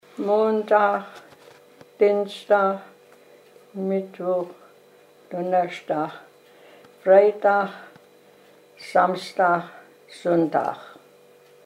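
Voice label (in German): wochentage, O-Ton Hunsrück; Bell (Hunsrück)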